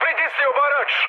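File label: Serbian attack position mood high; War Thunder; speech srb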